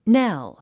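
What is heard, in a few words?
"knell", English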